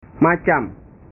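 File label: "macam", Indonesian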